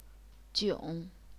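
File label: "jiong", Chinese, third tone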